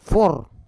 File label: "for", Roon